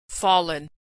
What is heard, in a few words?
verb "fallen", pronunciation